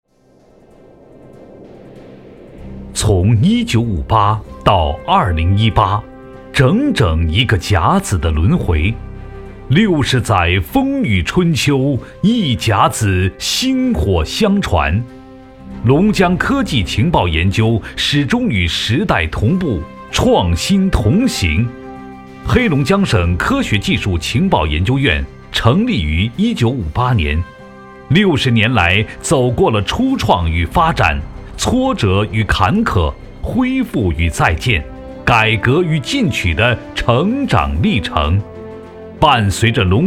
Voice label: 男 企业宣传; 黑龙江省科学技术情报研究院

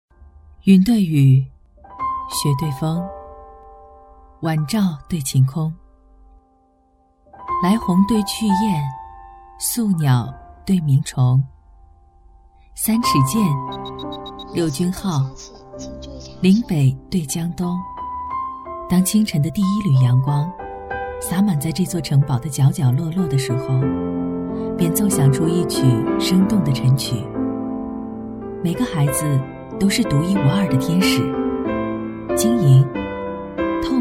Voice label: 女 旁白; 幼儿园

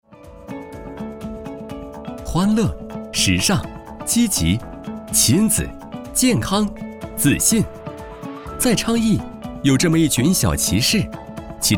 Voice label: 男 广告 活力 儿童类; 小飞象

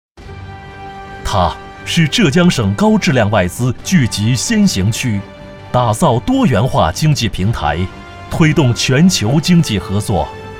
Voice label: 男, 专题片; 嘉兴招商

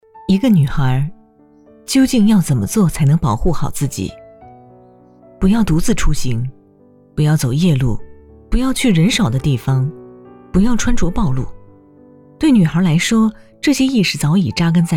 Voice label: 女 睡前故事散文叙事; 一个女孩

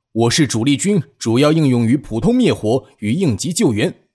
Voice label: 男 角色; 主力军

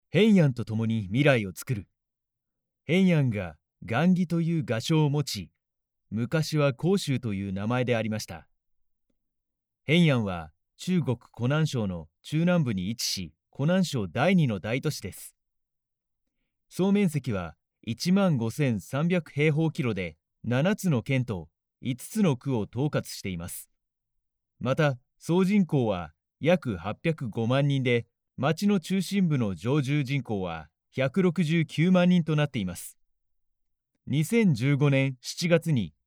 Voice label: 外籍日语男 地区宣传片; 衡阳

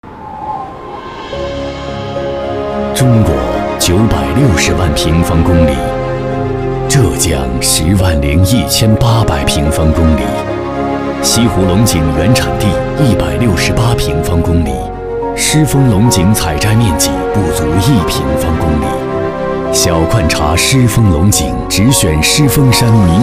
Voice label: 男 宣传; 小罐茶官宣; 不是我们限量 是大自然限量

